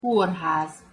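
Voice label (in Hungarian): "korhaz" Hungarian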